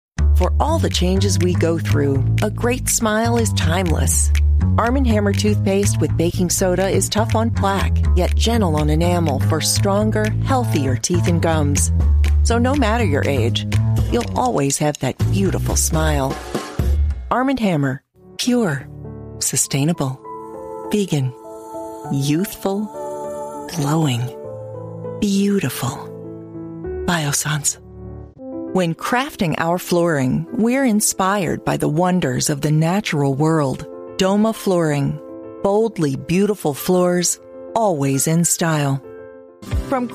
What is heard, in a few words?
commercial